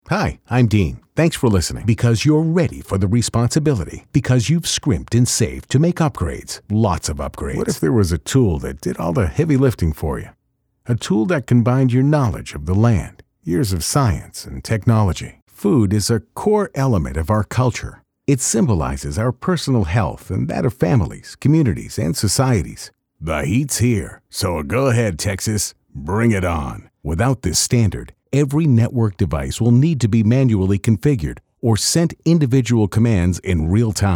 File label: Deeper Voice